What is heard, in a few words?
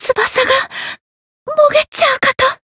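win barely, Japanese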